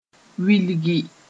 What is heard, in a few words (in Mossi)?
"wilgi", Moore